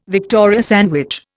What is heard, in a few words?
"Victoria sandwich", Indian